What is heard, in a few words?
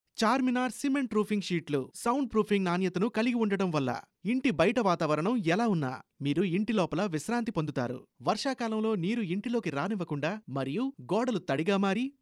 VO Telugu; CHARMINAR